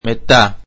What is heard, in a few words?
greek Then